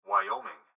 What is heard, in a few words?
Gps Voice, Male